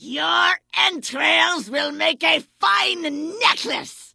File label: Vrykul Female Warrior Pissed